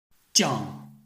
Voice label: "jiang4", Chinese